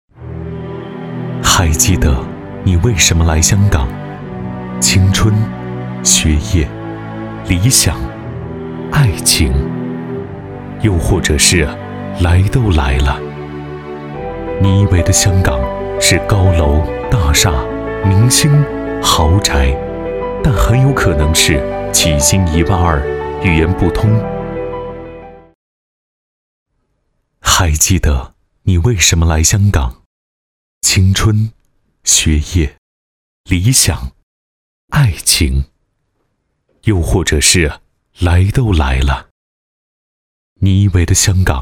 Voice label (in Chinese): 男 低沉品质旁白; 香港保诚